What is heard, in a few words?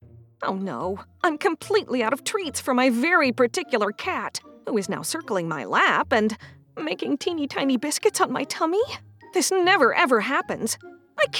Commercial Compilation